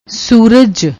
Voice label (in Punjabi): "sooraj", Punjabi; ਸੂਰਜ